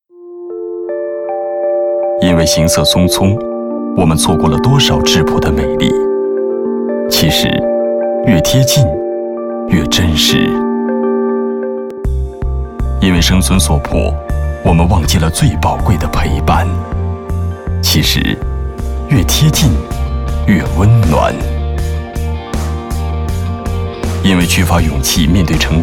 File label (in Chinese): 男-银幕广告; 中影营销